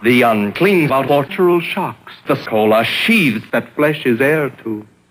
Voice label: unclee orfortroo shox cola scheeve thad fleshisairtoo, Voice gibbrish